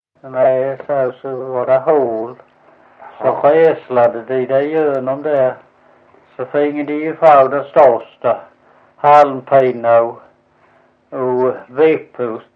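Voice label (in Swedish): Visseltofta Skåne, Svenska sagor dialekter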